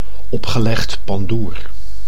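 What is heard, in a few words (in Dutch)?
"opgelegd pandoer", Dutch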